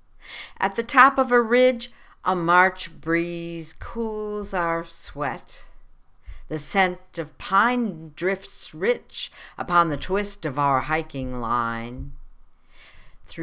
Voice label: Chinese Dragon Hike poem